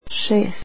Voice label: six, croatian